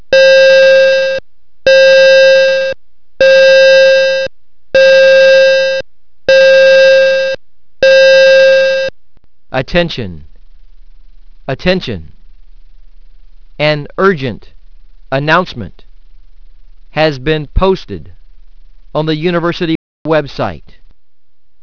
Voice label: Urgent